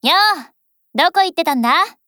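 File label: HELLO Japanese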